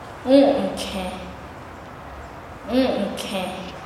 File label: Nasa Yuwe; vez